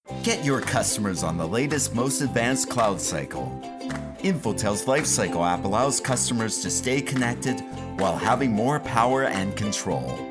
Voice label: English Foreign English Accent

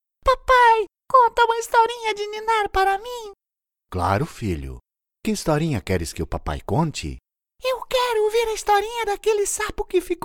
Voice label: Portuguese

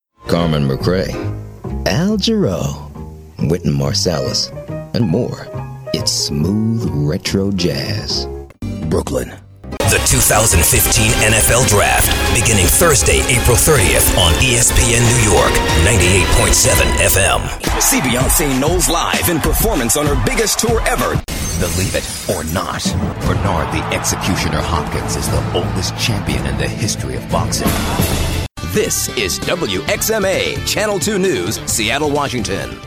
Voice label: English USA

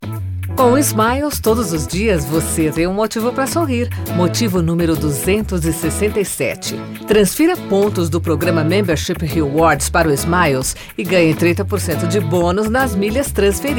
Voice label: Portuguese, Brazil

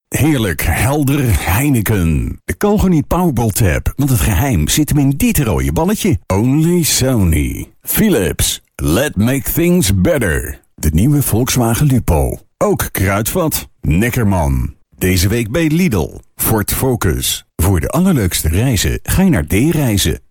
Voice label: Dutch Native

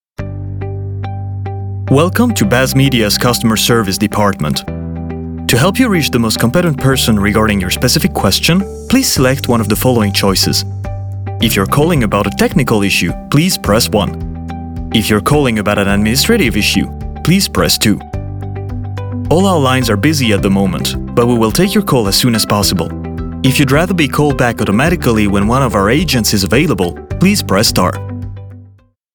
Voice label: English Foreign English Accent

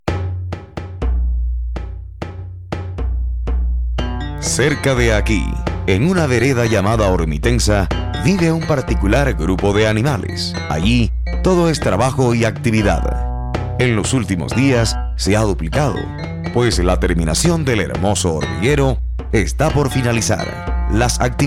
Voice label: Spanish Latin

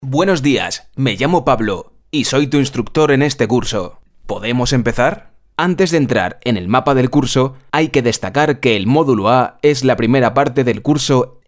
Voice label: Spanish Spain

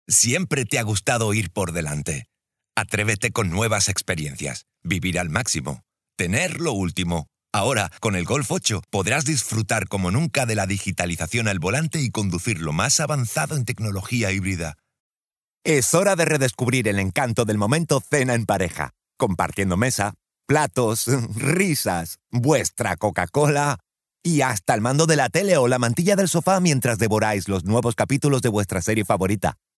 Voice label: Spanish Neutral

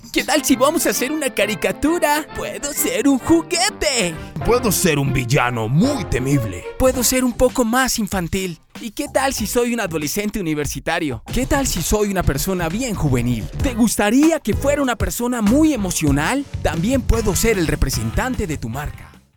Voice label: Spanish Latin

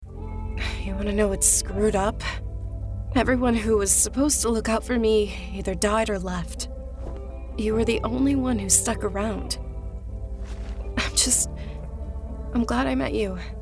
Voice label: English USA

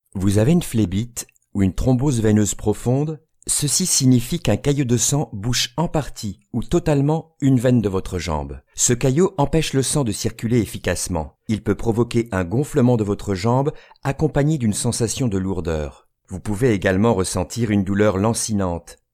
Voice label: French France

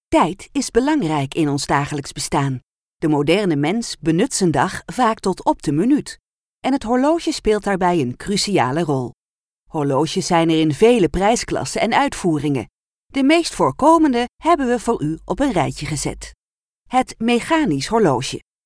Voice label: Dutch Native

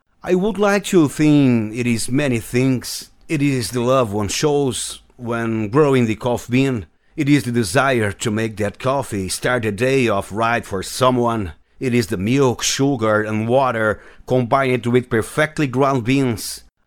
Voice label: English Foreign English Accent

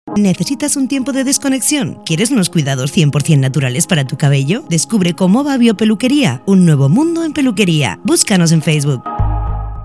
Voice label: Spanish Spain

